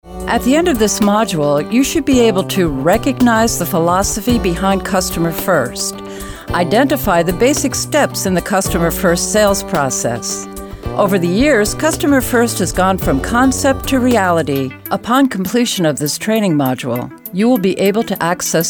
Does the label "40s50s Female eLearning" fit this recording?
Yes